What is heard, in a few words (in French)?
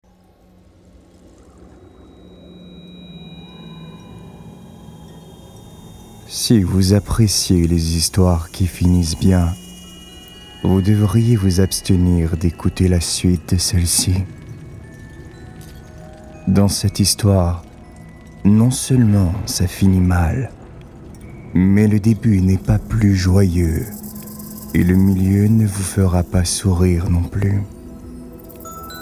Narration